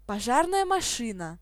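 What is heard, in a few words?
firetruck desc, Belarusian; tuxpaint-stamps; vehicles; emergency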